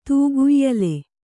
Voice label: "ತೂಗುಯ್ಯಾಲೆ", Kannada

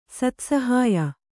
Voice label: "ಸತ್ಸಹಾಯ", Kannada

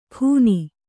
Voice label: "ಗುನಿ", kannada

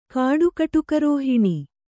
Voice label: "ಕಾಡುಕಟುಕರೋಹಿಣಿ", kannada

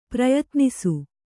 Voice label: "ಪ್ರಯತ್ನಿಸು", Kannada

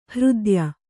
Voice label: "ಹೃದ್ಯ", Kannada

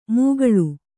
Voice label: "ಮೂಗಳು", Kannada

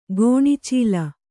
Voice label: "ಕಣ್ಚಲ್ಲ", Kannada

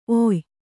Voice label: "ಒಯ್ಯಿ", Kannada

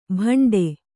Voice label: "ಭಾಂಡೆ", Kannada

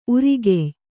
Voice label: "ಊರುಗಾಯಿ", Kannada

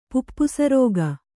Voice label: "ಪುಪ್ಪುಸರೋಗ", Kannada

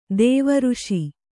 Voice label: "ಋಷಿ", Kannada

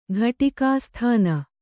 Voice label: "ಘಟಿಕಾಸ್ಥಾನ", Kannada

